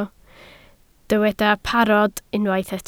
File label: "parod", Welsh